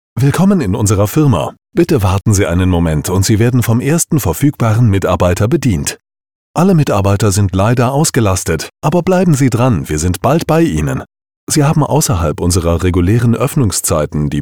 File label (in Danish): DE NEUTRAL MAND; Stemmebasen